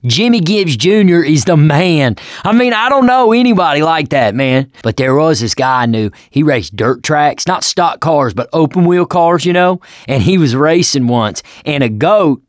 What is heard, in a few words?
stories, survivor voice; ellis; Left 4 Dead 2; mechanic